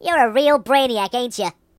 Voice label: "Stupid", voices Mobster